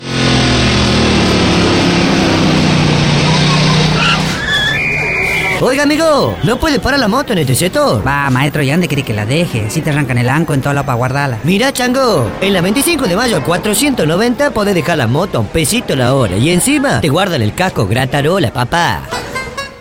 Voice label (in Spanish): Spot Radio; Incubo